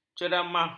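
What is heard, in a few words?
"ceramah", Malay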